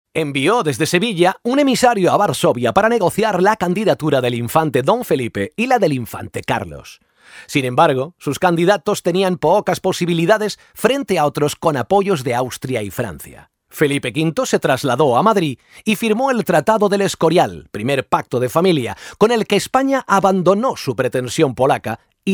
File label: locutor spanish voiceover